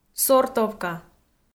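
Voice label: "сортовка", Komi, female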